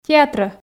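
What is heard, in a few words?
"театрӧ", Komi, female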